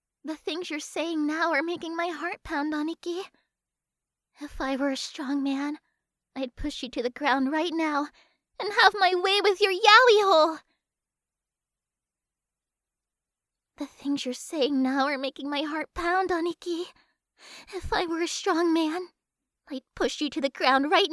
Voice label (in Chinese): 外籍英语女 角色害怕